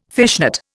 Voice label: "fishnet", Indian

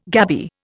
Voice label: "gabby", Indian

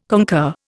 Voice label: "concur", Indian

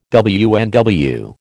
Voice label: "WNW", American

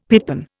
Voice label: "pitpan", Indian; Dictionary

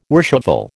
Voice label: "worshipful", American

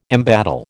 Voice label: "embattle", American

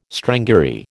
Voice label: "strangury", American

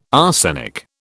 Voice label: "arsenic", British

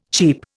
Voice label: "cheap", Indian

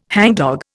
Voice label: "hangdog", Indian

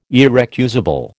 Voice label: "irrecusable", American

